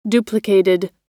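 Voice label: "duplicated", American English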